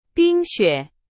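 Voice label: Chinese, vocabulary; hsk4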